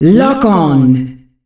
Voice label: "Lock On", female announcer; Unreal Tournament; ut2004